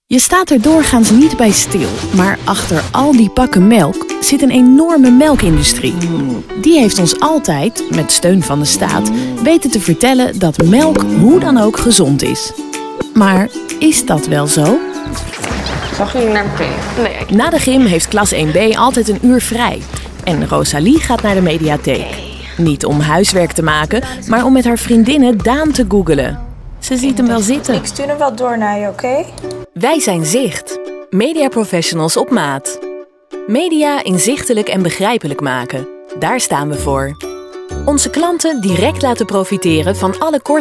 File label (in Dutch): bedrijfsfilms